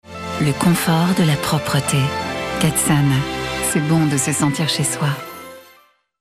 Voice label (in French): pub voix off voix off; catsan